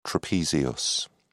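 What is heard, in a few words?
"trapezius", British English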